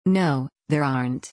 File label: fast